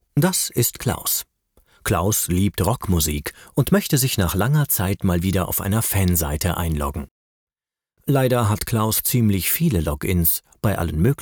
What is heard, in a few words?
erklaerfilm explainatory